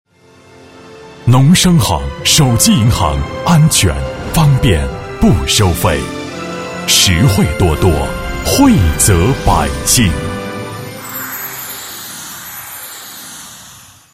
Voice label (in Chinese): 广告, 银行, 手机银行, 温情; 农商